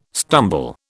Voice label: "stumble", British